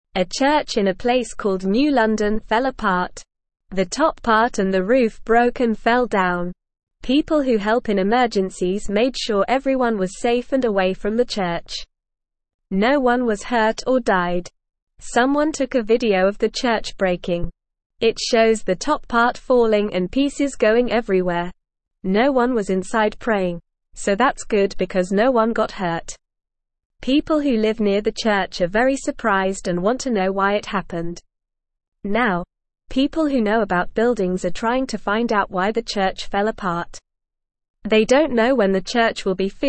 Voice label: English Lower Intermediate NORMAL Reading; Big Church in New London Falls Down No One Hurt; English Newsroom

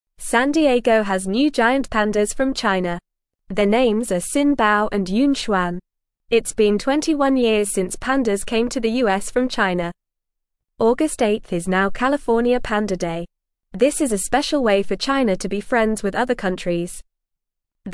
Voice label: English FAST Reading; New Pandas Arrive in San Diego from China

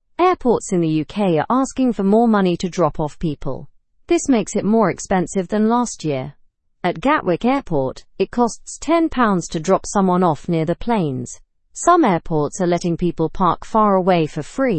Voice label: learn english through news beginner normal; airport drop off fees increase

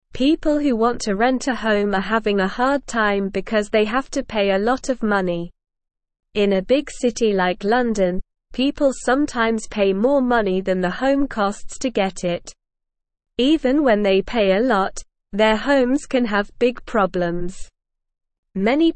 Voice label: Beginner SLOW Reading, English; Renting Homes Making it Fair for Everyone

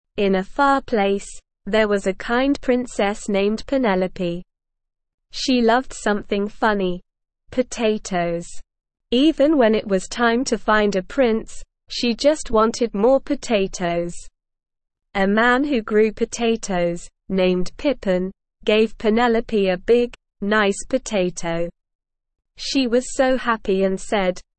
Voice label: ESL Short Stories for Kids Beginner SLOW Reading; The Princess and the Potato

